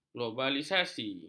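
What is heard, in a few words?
"globalisasi", Malay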